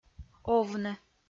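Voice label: "овны" Komi-Zyrian, female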